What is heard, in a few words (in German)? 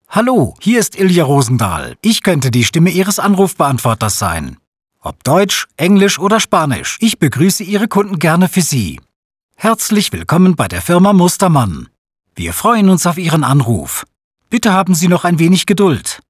Sprecher Telefonansagen